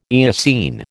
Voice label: "Eocene", American